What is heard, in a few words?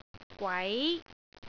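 "gwai2", Cantonese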